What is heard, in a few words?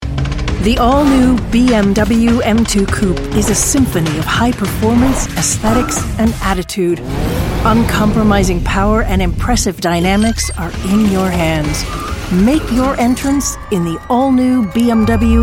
Commercial VO